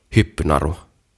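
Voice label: "Hyppynaru" Finnish; Skipping Rope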